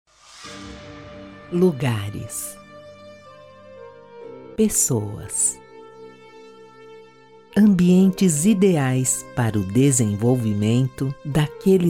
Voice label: portuguese brazilian corporate videos

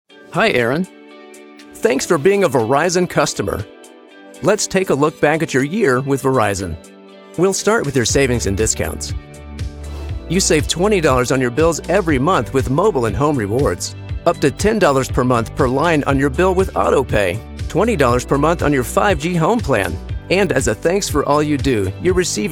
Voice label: english american promos

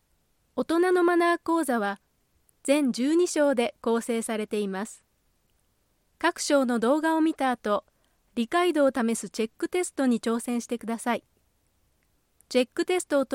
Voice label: japanese e-learning

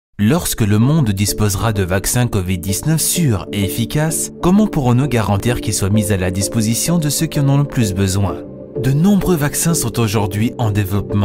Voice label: French corporate videos

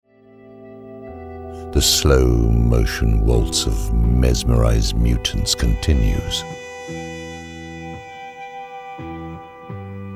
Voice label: english south african animation

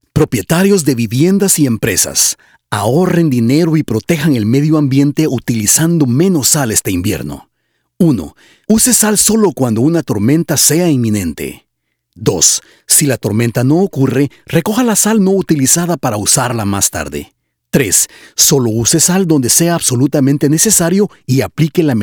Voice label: spanish latin american announcements

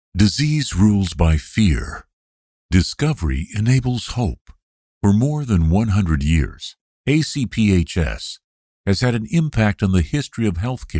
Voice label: english american corporate videos

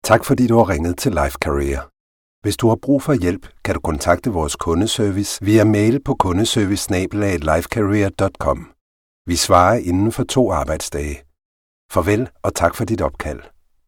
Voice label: danish ivr

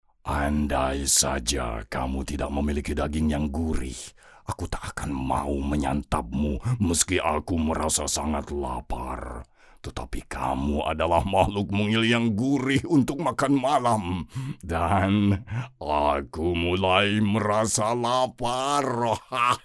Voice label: indonesian animation

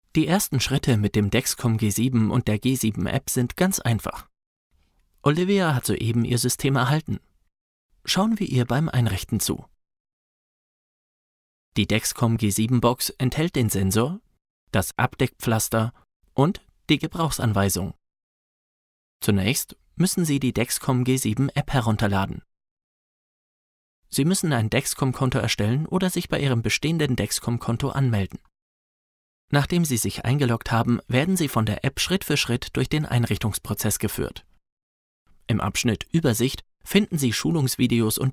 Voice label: german medical narration

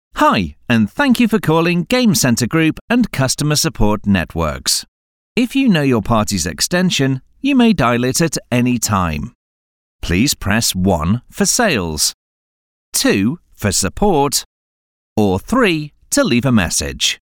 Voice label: english british ivr

